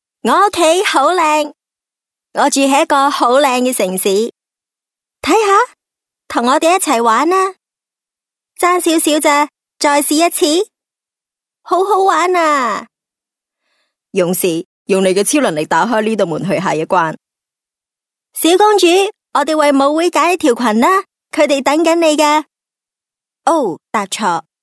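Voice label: Cantonese female children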